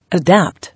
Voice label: "Adapt" English